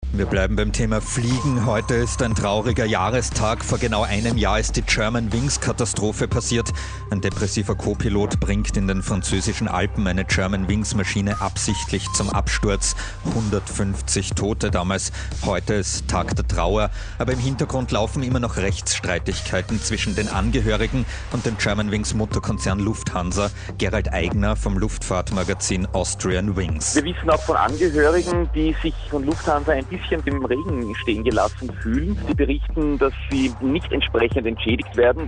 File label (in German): Interview; Kronehit; Jahrestag Germanwings-Absturz